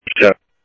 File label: Sheriff Central, scanner; SoutheastCenMesa; MCSO Central